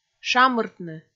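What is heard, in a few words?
"шамыртны", Komi, female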